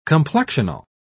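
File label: "complexional", British English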